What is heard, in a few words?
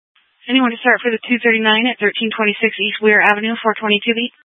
Police Dispatch; Phoenix Police; A04 400 South Mt Prec; Simulcast C- Chandler